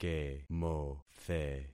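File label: "kemothe", concatenated syllables; Affixing experiment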